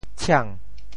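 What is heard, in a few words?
"tshiang2", Teochew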